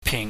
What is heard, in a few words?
"ping", Chinese